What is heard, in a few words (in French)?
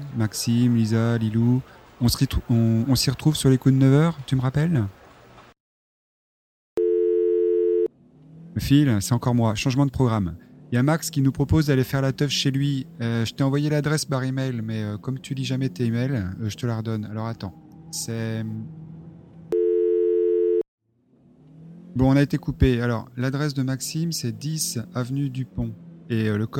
beaujolais messages telephoniques